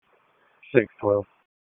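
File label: Police District Dispatch, p25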